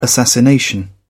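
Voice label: "assassination", British English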